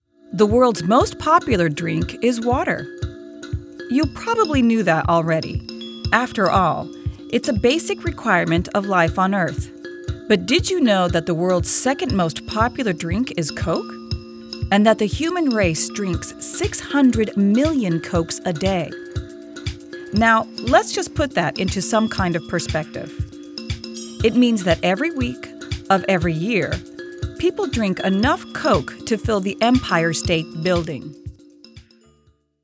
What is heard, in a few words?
NARRATION